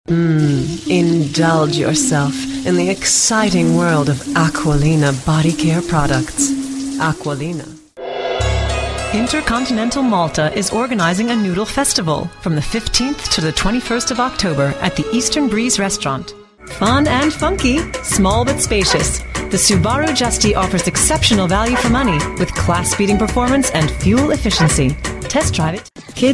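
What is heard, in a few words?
alluring, radio commercial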